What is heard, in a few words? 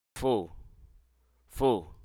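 Hruso Aka; burn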